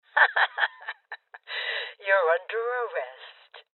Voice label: Order To Surrender Female Old; rebel cops 2019 gamerip; Voices